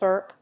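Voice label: "burp", English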